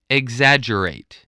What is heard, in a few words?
"exaggerate", voice, english